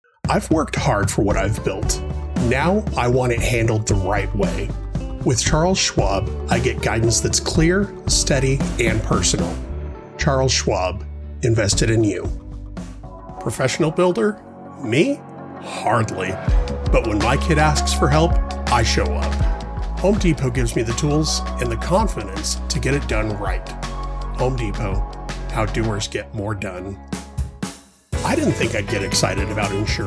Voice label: Commercial VO, Deep Male Voice